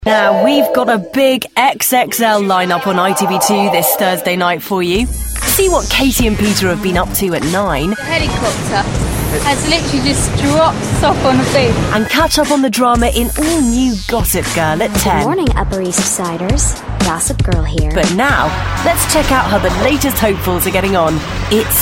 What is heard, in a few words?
continuity